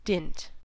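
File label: "dint", US English; pronunciations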